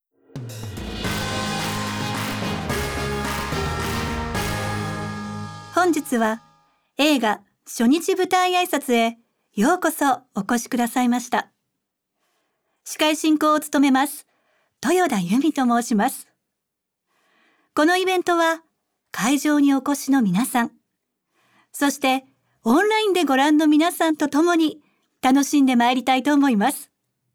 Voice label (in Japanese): 司会進行「映画初日舞台挨拶」